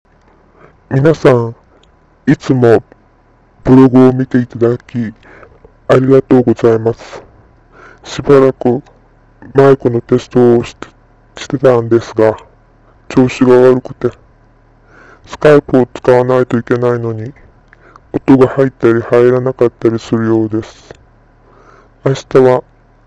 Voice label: voice message; happymeal